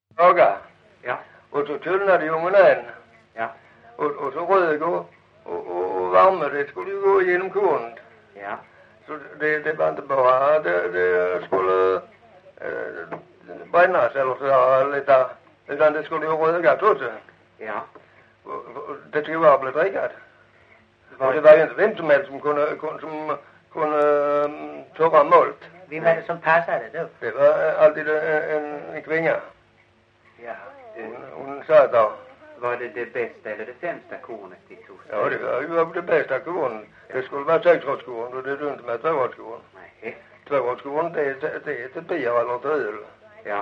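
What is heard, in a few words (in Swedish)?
Lilla Harrie Skåne, Svenska sagor dialekter; Folkminnen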